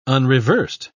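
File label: "unreversed", English, British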